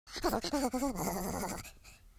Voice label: scared, Horror